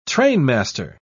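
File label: "trainmaster", British English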